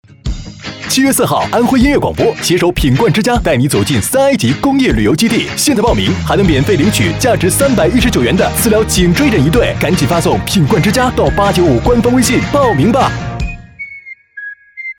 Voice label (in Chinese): 音乐广播电台广告年轻时尚